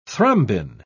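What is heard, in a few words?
"thrombin", British English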